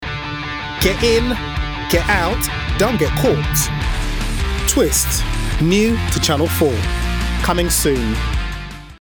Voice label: voiceover promo